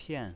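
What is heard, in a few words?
"pian" fourth tone, pinyin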